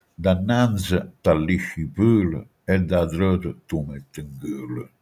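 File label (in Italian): "pelo", Manfredonia dialect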